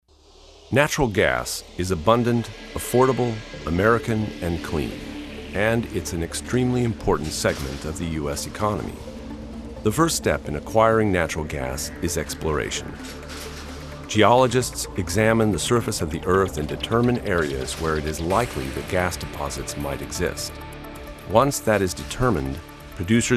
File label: narr